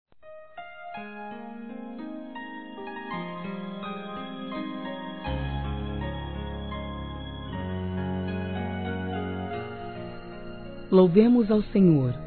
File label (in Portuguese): Trilha ANIV. GERAL F, Telemensagens Gospel fem; Telemensagens 2017